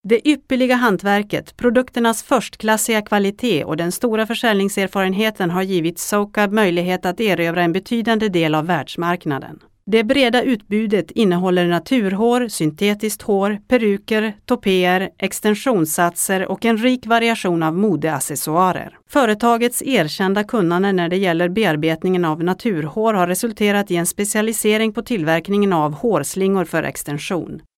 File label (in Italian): svedese